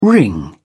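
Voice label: "wring", English, British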